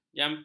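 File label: "jampi", Malay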